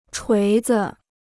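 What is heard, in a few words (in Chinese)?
"锤子" Mandarin Chinese; chuí zi; hammer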